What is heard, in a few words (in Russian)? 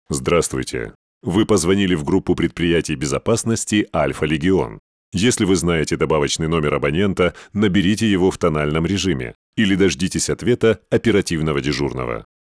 Голосовое приветствие IVR; Охранная компания Альфа Легион; АВТООТВЕТЧИКИ